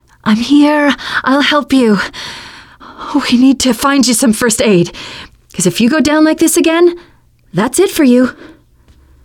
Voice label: revive critical friend, teengirl